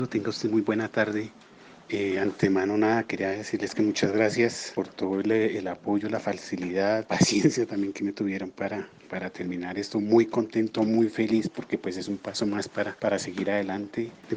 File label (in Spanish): Hombre; Bachiller; Testimonios de algunos de nuestros egresados